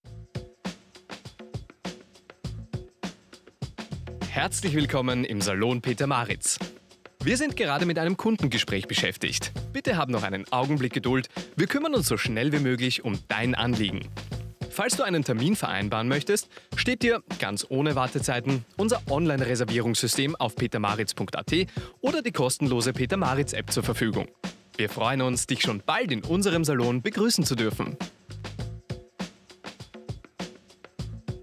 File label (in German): Telefonansage